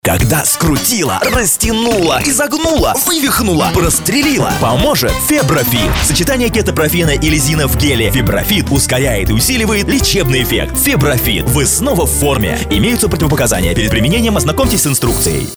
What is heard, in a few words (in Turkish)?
Ukraynaca Erkek, seslendirme; erkek sesler